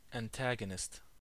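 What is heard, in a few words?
"antagonist", American English; pronunciations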